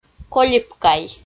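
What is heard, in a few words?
"колипкай" Komi, female; audio dict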